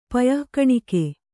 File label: "ಪಯಃಕಣಿಕೆ", Kannada